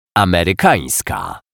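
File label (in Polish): "amerykanska", Polish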